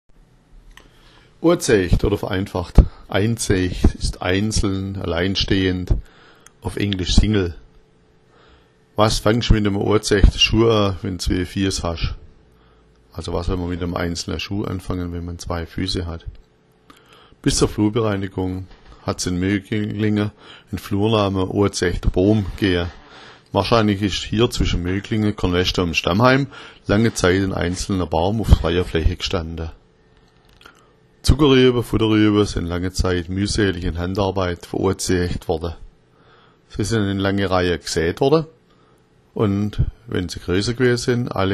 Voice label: "oizecht", schwaebisch

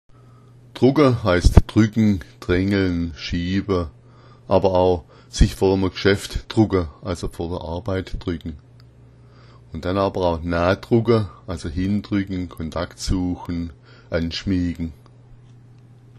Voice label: drugga, schwaebisch

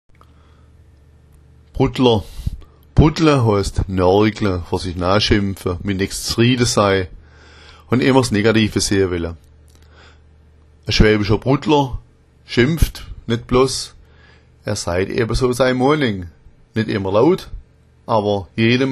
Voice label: bruddler, schwaebisch

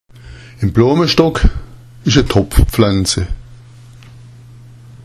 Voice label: bloamastock, schwaebisch

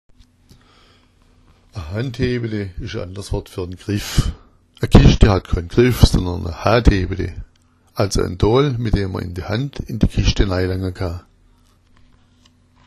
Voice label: handhebete, schwaebisch

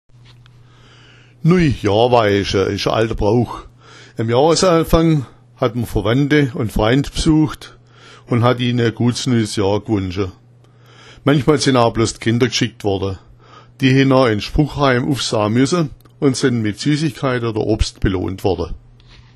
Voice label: nuijahr, schwaebisch

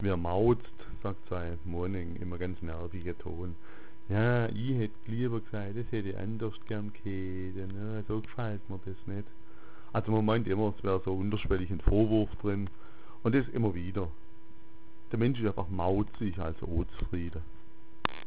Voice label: mauza, schwaebisch

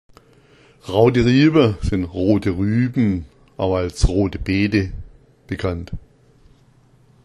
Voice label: "raoteriaba", schwaebisch

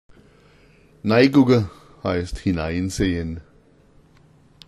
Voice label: neigugga, schwaebisch

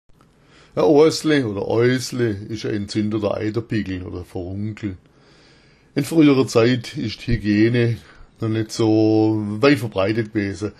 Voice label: oasle, schwaebisch

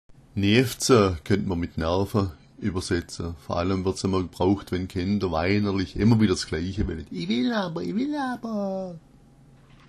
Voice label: neafza, schwaebisch

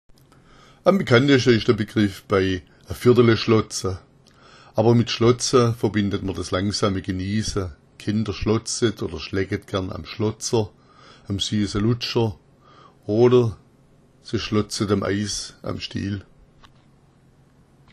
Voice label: schlotza, schwaebisch

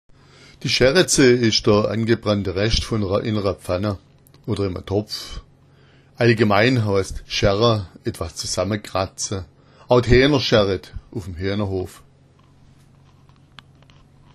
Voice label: "scherretse", schwaebisch

